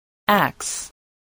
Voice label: "axe", English